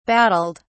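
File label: "battled", British English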